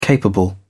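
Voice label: "capable", British English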